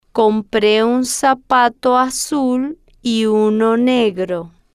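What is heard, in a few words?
compre Un Zapato Azul Y Uno Negro, Spanish, female, slow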